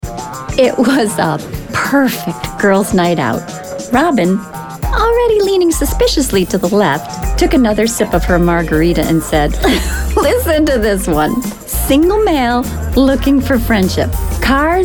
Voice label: Narrative Demo